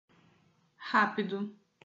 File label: "rápido", portuguese